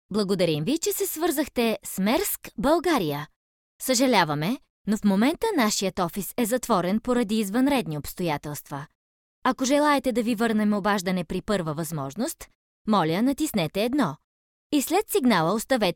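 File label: bulgarian female ivr; voice demos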